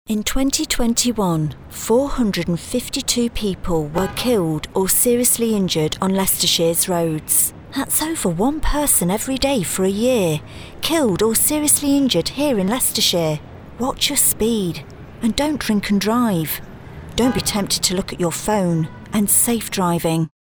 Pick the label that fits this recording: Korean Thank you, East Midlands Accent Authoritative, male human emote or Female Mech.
East Midlands Accent Authoritative